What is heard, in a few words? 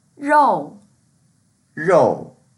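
"rou4", Mandarin Chinese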